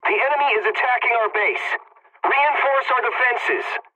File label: pilot base under attack, english; War Thunder